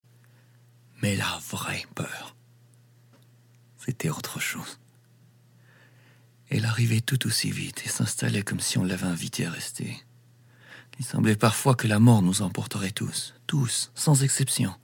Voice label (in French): narration interpretee